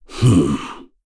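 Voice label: Vox Think, voices heroes English; KaselB; kingsraid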